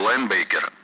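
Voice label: Speech Russian; Allied Flight; AirDefence; Linebacker; DCS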